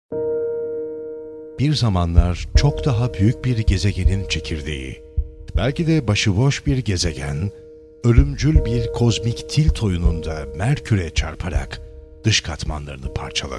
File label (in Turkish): belgesel ses ornek